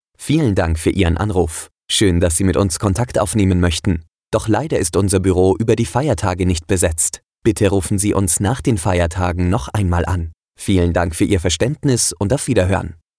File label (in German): ansage (m)